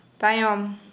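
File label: Santali female behind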